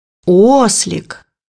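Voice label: "donkey", Russian; bukvar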